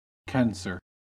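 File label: "cancer", American English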